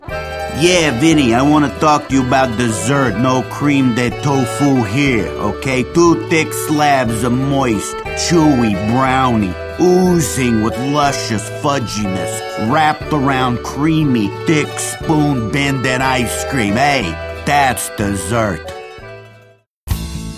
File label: voiceover male